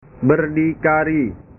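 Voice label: "berdikari", Indonesian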